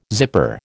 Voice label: "zipper", American

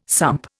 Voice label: "sump", Indian

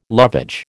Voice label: "lovage", American

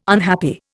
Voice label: "unhappy", Indian

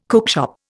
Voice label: "cookshop", Indian

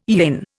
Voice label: "yin", Indian

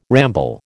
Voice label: "ramble", American

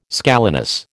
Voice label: "scalenus", American

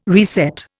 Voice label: "reset", Indian

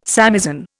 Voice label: "samisen", Indian

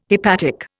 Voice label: "hepatic", Indian

